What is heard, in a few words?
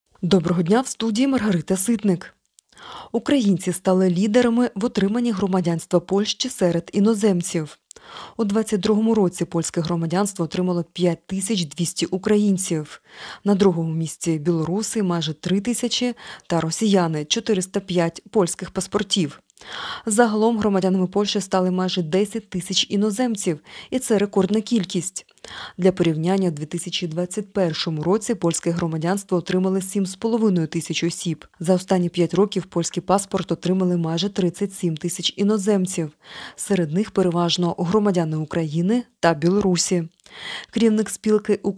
Ukrainian Morning News